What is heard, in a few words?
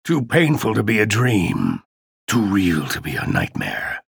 chatter negative; ranger; respawn